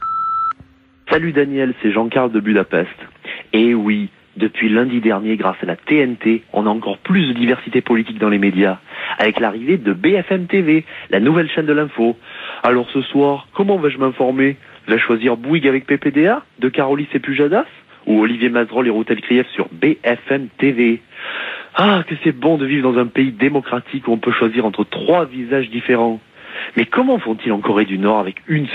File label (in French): repondeur; BFM TV